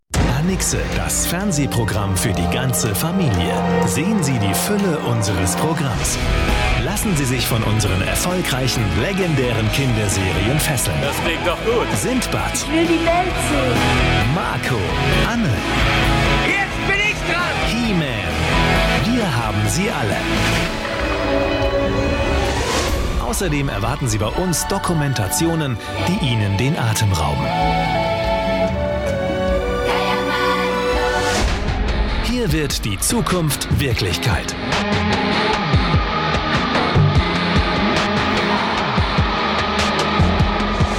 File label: TV Station Voice, German